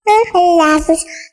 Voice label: Child voice

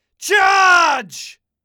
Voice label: Charge British Warrior

